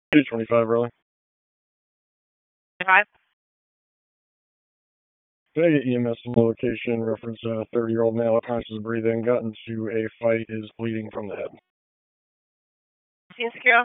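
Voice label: Police Northeast District Dispatch, p25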